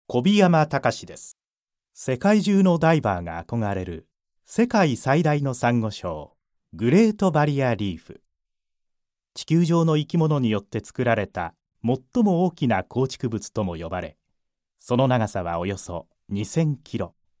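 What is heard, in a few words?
male tv program, English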